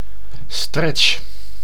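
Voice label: "stretch", Dutch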